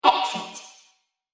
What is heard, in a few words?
Robot Voice Exit; sci-fi; Robot Sound Effects